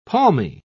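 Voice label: "palmy", British English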